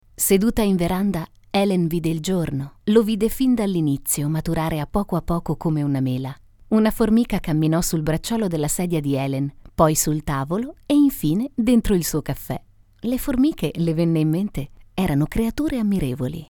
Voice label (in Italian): racconto